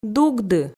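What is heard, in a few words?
"дугды", Komi, female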